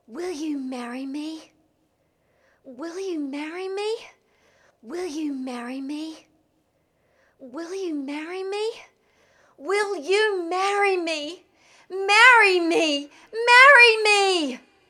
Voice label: Marry Me Female